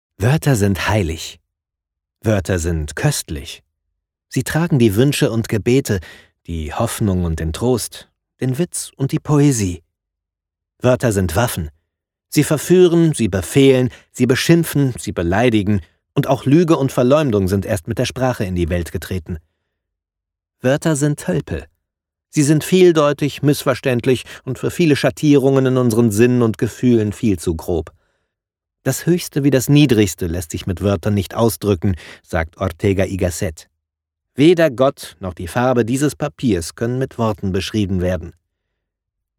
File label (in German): sachtext, sprecher hoerbuch